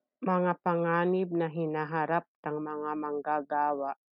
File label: Tagalog; hazards that workers face - mga panganib na hinaharap ng mga manggagawa